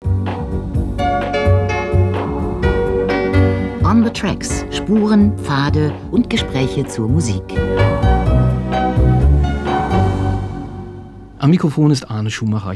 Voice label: german introduction